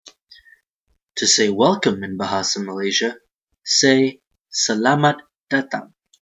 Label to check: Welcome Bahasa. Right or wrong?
Right